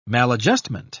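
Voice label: "maladjustment", British English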